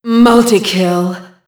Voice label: "multi kill", female; quake